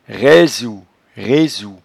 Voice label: "raisouresou", patois jura

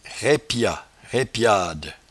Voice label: "raipiat", patois jura

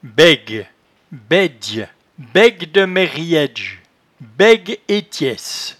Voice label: "baigue", patois jura

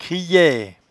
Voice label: "criaaie", patois jura

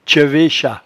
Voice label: "tchevechat", patois jura

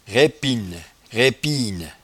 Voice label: "raipinne", patois jura

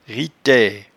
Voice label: "ritaie", patois jura

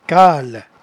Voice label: "cale", patois jura

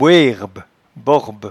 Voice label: "boerbeborbe", patois jura

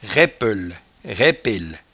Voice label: "raippel", patois jura

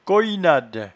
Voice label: "coyennade", patois jura

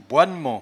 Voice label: "boinnement", patois jura

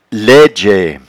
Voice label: "laidgeaie", patois jura

